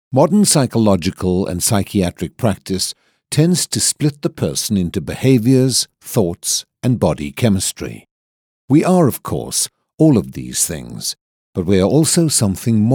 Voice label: Elearning

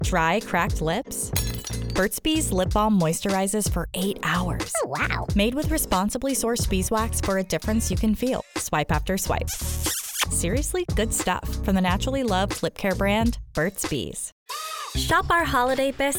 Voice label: COMMERCIAL VO

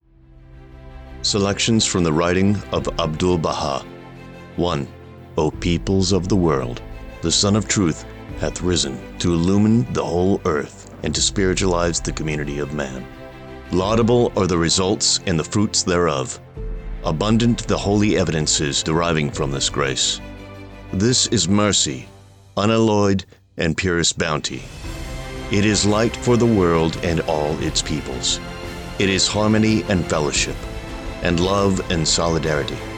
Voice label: Documentary; Abdul-Baha

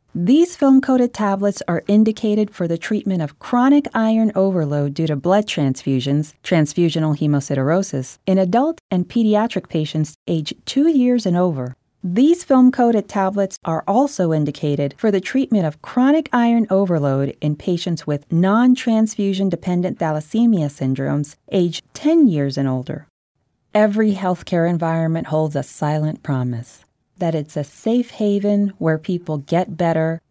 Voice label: E Learning Medical

